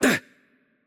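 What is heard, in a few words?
Spanish hurt light voice; bloom minigame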